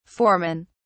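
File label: "foremen", British English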